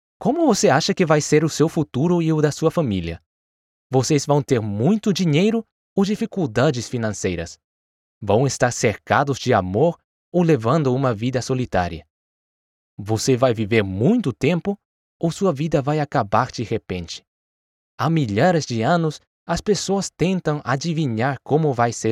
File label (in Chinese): 外籍日语男 葡萄牙语干音 Portuguese Dry